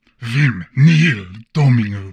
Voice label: Humanoid male; Stellaris

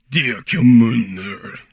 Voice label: Humanoid male; Stellaris

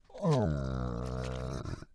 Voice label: Cute molluscoid snail greetings; Stellaris

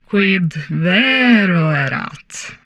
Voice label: Humanoid female; Stellaris

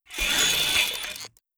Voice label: Robot plantoid greetings; Stellaris

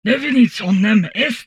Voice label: Humanoid female; Stellaris

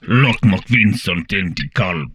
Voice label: Humanoid male; Stellaris

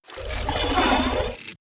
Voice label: Robot mammalian greetings; Stellaris

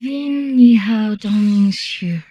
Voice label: Humanoid portrait female; Stellaris

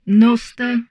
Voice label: Humanoid portrait female; Stellaris

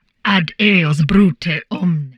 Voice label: Humanoid female; Stellaris

